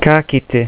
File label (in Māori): "ka kite", maori